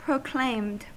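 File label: "proclaimed", US English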